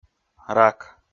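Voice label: "рак", Komi, male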